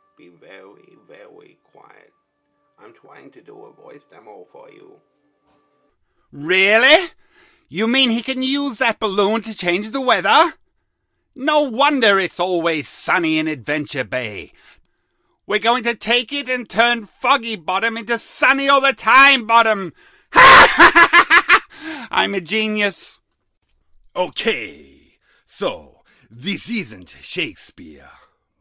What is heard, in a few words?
Mixed Character V.O